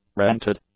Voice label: "rented", English; adjectives

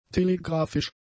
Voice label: "telegraphisch", German; adjectives

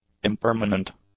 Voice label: "impermanent", English; adjectives

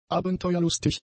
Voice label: "abenteuerlustig", German; adjectives

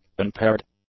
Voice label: "unpaired", english; adjectives

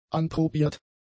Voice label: "anprobiert", German; adjectives

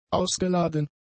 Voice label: "ausgeladen", German; adjectives

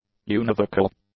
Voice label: "univocal", English; adjectives

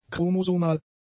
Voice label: "chromosomal", German; adjectives

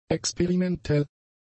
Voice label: "experimentell", German; adjectives

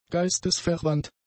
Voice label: "geistesverwandt", German; adjectives

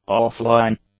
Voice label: "off-line", english; adjectives

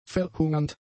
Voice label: "verhungernd", German; adjectives

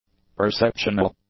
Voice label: "perceptional", English; adjectives

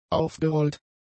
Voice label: "aufgerollt", German; adjectives

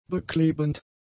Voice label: "beklebend", German; adjectives

